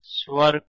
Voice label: "svara", Sanskrit; स्वर svara; “voice, sound”